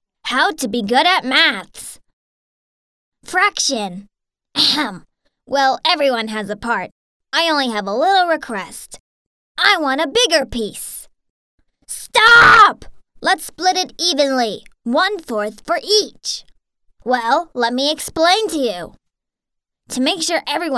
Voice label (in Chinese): 外籍女英 外语 美式英语 主角